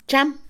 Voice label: hoy, Mixe, Mujer; INALI

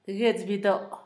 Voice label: viruela, Zapoteco, Asunción Ocotlan; Enfermedades; INALI; Haspelmath

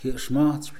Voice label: "saborear", Mixe, hombre; Percepción

